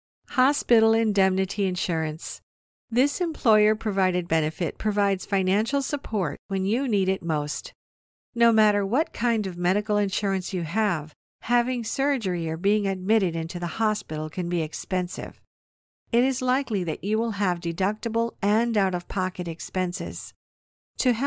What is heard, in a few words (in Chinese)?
甜美干音 意外伤害险宣传